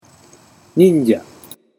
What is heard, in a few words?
"ninja", Japanese